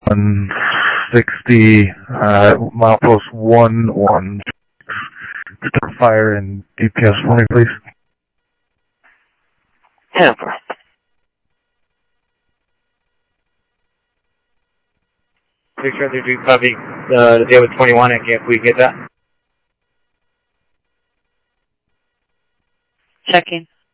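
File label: Sheriff West County, scanner audio trunk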